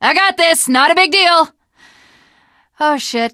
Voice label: jumping off bridge, teengirl; video game; source engine; left 4 dead 2; survivor; voice